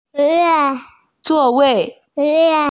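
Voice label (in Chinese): "zuo wei", Mandarin Chinese, female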